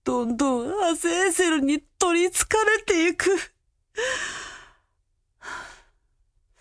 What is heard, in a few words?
Awaken Babble; Zara